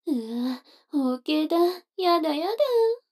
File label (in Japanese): 女の子 台詞, ASMR